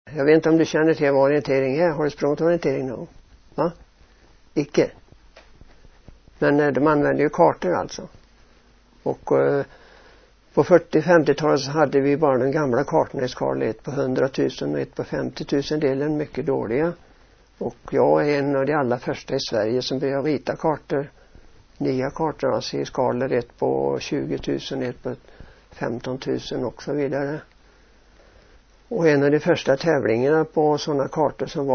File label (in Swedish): Västergötland